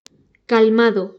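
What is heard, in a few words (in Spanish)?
"calmado", Spanish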